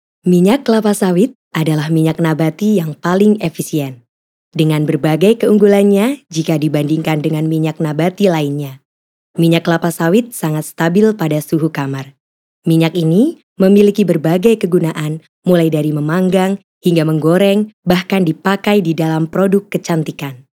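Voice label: FORMAL Indonesian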